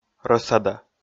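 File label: "рӧсада", Komi, male; kpv audio dict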